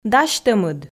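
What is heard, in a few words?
"дасьтӧмыд", Komi, female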